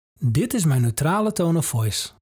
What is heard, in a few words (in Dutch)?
Neutrale tone of voice